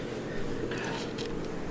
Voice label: attic back evp "go"